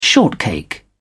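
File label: "shortcake", British English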